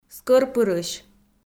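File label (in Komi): "скӧрпырысь", Komi, female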